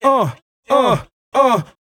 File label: "uh uh uh" male, Voice; ooh ahh; uh um